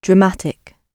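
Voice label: "dramatic", British English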